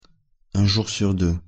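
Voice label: "un jour sur deux", French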